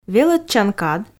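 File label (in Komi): "велӧдчан кад", female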